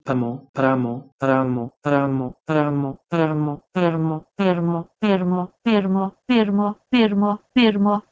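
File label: Proto-Indo-European "prh2mo" to Lithuanian "pirmo"; eastern origins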